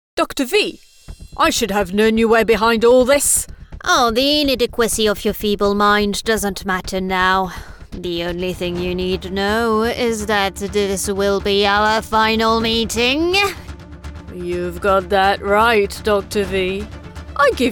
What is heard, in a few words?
Animation ENG